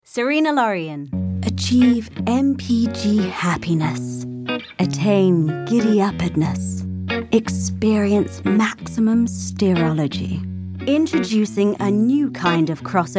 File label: Commercial Voice